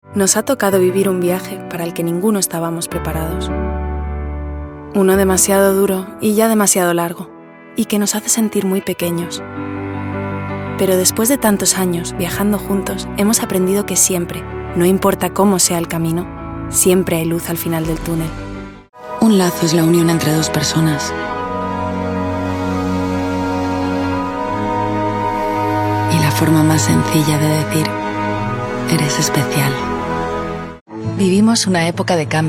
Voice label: Inspiradora ES

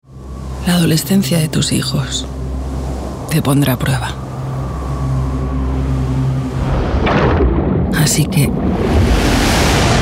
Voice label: Madura, ES

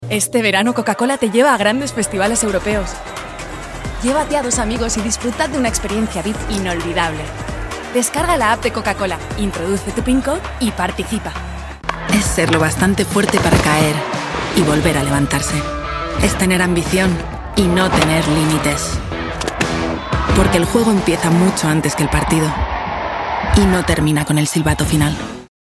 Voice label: Entusiasta, ES

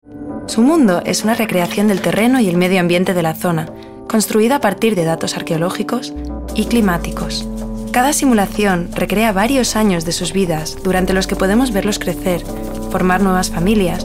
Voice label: Documental, ES

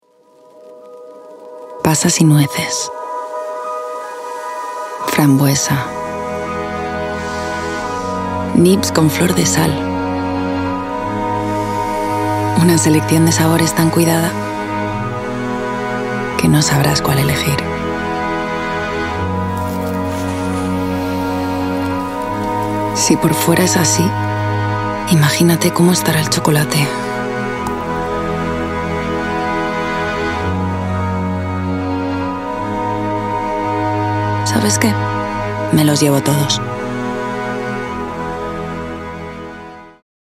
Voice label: Evocadora, ES

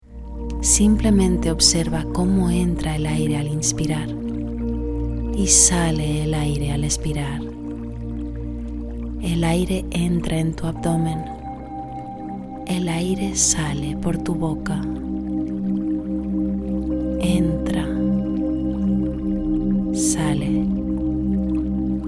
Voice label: Meditación ES

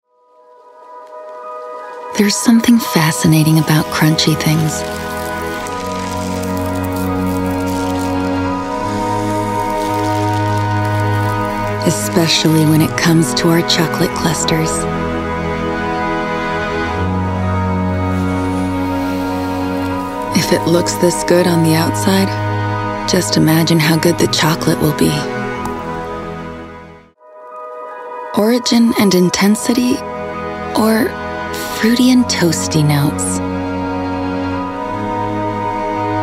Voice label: Evocadora, EN

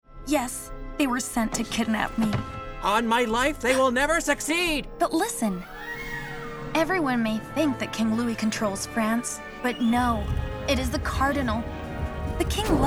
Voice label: Animación, EN

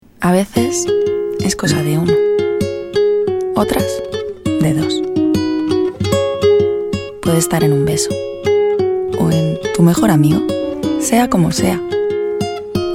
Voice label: Cálida ES

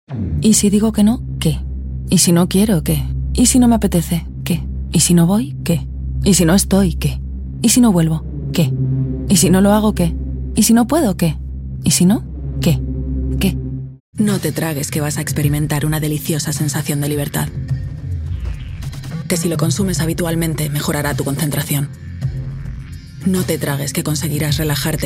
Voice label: Provocadora, ES

